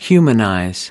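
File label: "humanize", American English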